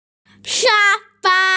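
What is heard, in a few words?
"sha fa", Mandarin Chinese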